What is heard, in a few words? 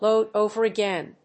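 "load over again", English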